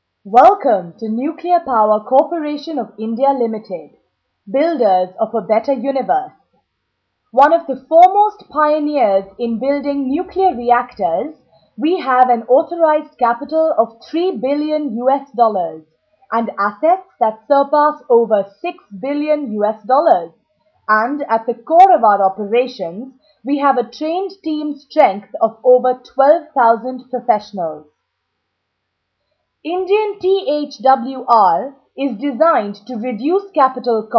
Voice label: VO Female; English Female